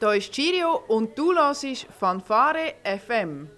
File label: Station ID; Cheerio